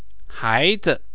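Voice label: "haizi" Chinese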